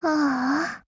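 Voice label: LOW MOOD, Japanese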